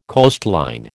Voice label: "coastline", American